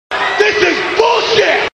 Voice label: rage; bret hart

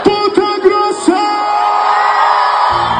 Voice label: autotune; ponta grossa

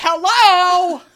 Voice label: hello angry

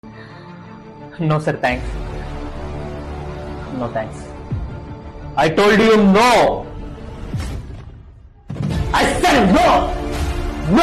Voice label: polite rejection

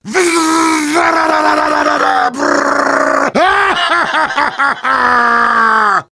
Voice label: gibberish; tf2

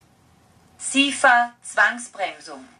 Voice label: Zwangsbremsung female voice; sifa

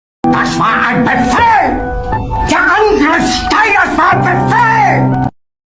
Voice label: angry German yelling; adolfhitler meme

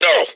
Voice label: stormtrooper pain; lego star wars; tfa

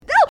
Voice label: panicked no

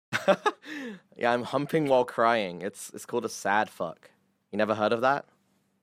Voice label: sad

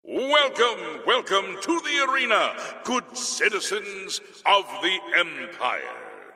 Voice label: arena announcer

